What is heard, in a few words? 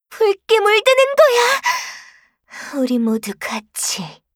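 kill Player, Voice Line, Korean; Eternal Return; Garnet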